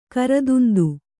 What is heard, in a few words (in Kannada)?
"ಕೃತಾಂತ", Kannada